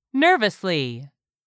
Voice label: "nervously", English; 3000 words